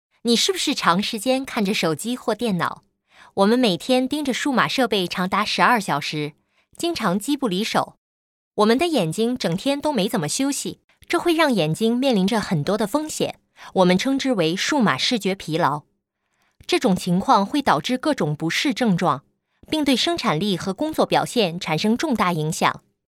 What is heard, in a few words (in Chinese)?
普通話女聲 Corporate